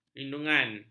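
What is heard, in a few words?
"lindungan", Malay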